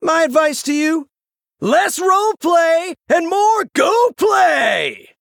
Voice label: Male Human; Leeroy Jenkins

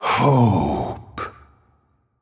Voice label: Male Angel Play

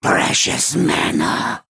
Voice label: Male Felblood Elf Attack

